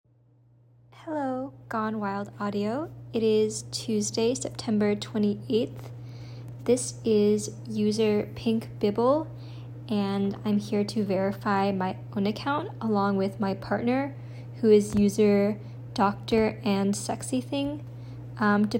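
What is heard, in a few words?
Female Verification Request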